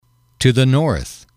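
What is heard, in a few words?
to the north, English, male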